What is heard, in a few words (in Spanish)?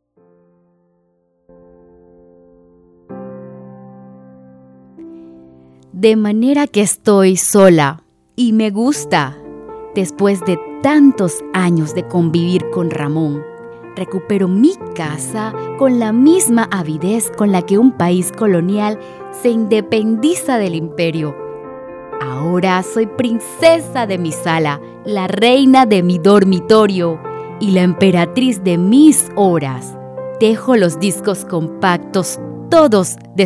narra